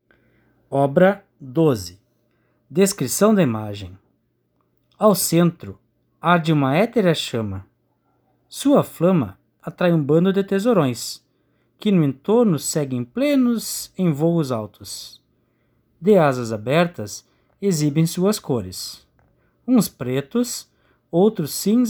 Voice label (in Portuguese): audiodescricao; Galeria virtual